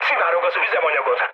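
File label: tank damaged, mood med, Hungarian; War Thunder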